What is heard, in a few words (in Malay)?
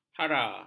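"hara", Malay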